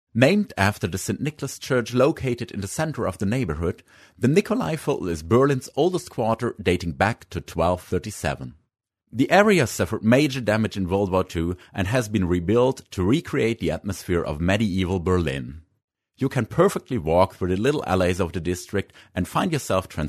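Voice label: German English, male, narration; accent of germany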